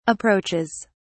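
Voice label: "approaches", British English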